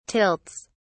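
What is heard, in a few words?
"tilts", British English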